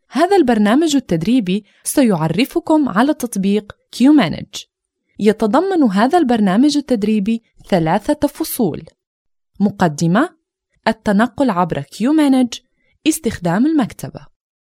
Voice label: Arabic Female; Work sample; QManage